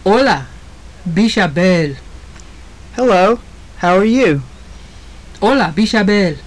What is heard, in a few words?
conversation, maya; Ola, bix a beel?; Hello, how are you?; JUNP'IIT MAYA